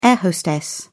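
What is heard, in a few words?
"air-hostess", British English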